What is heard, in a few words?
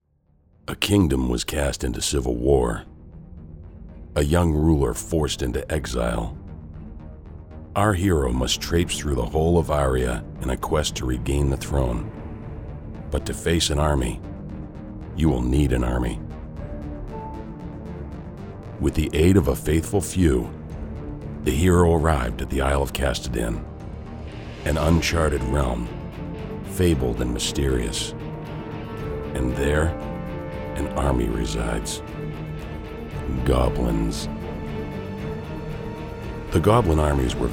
voice over for videogame opening